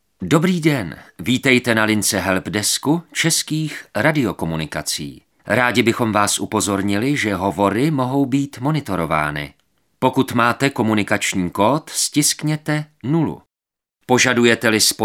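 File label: male Czech IVR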